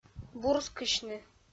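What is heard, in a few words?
"бурскысьны", Komi, female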